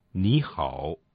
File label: Chinese hello